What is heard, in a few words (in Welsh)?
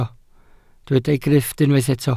"grifft", Welsh